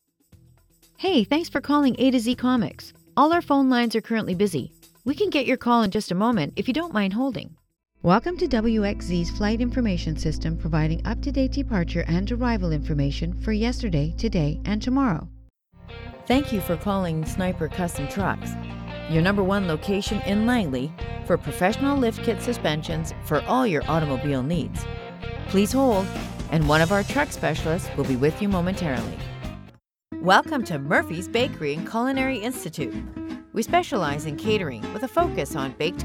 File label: English canadian ivr